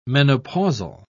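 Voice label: "menopausal", British English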